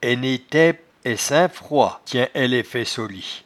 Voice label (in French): "enetaipeesaingfroid", patois jura